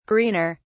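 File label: "greener", British English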